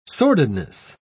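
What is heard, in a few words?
"sordidness", British English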